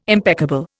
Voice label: "impeccable", Indian